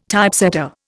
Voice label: "Typesetter", Indian